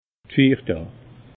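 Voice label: "fiirdaa", Alsatian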